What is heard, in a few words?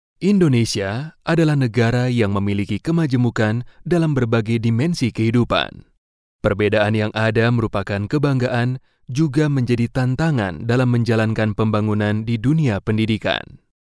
indonesian documentaries